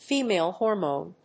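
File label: "female hormone", English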